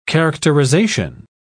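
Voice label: "characterisation", British English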